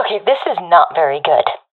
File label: scared, professional fem; vo; Counter Strike Model Pack; CS:GO